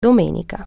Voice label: sunday, italian